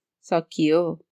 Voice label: stop, nepali; grade3; kurakani

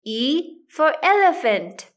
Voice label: "e elephant", english; grade1; identifying letters i

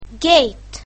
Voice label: "gate", English; grade1; basic sounds 1

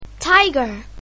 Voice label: "tiger", english; grade1; basic sounds 1

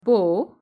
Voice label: "bow", english; sun and light

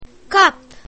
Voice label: "cup", english; grade1; basic sounds 1

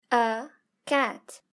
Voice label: "cat", english; grade3; fun with sentence

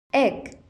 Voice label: "egg", English

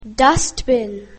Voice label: "dustbin", English; grade1; basic sounds

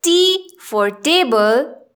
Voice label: table, english; grade1; identifying letters

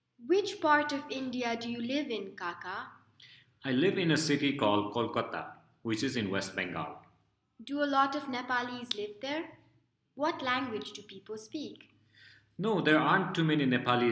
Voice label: conversation

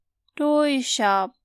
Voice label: toy shop, en; grade1; market

